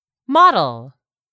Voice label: "MODEL", english; 3000 words

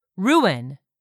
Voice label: "RUIN", english; 3000 words

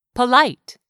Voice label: "polite", English; 3000 words

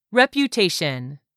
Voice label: "reputation", english; 3000 words

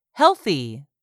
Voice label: "HEALTHY", english; 3000 words

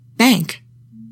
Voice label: "BANK", english; 3000 words

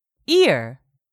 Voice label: "ear", English; 3000 words

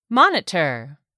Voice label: "MONITOR", english; 3000 words

